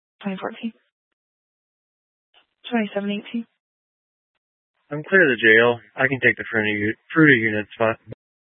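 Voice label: Sheriff Dispatch, Scanner audio recording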